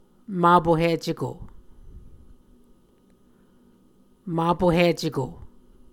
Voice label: "mapohiceko", Muscogee